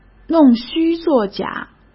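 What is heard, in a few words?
"弄虚作假", Mandarin Chinese; hsk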